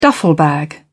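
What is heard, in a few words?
"duffel bag", British English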